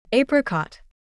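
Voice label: "Apricot", English pronunciation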